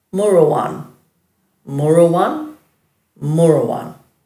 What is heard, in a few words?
"murawan-", Dhurga